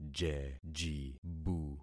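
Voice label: "jejibu", concatenated syllables; Affixing experiment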